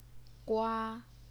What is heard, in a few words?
"gua1", Chinese pinyin